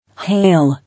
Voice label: "Hail", English